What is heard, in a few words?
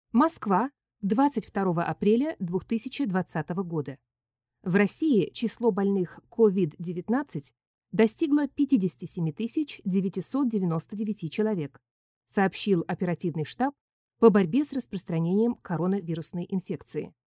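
Russian Russia female narrative; russian voice over talent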